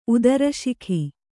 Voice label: "ಉದರಶಿಖಿ", Kannada